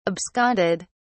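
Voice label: "absconded", English, British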